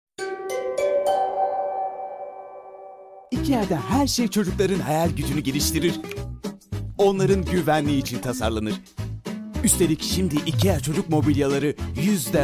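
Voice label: ikea, Turkish, Male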